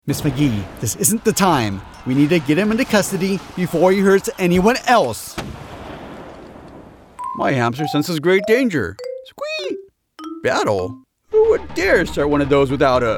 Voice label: animation